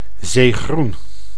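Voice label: "zeegroen", Dutch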